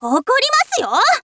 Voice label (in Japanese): line girl "okorimasuyo"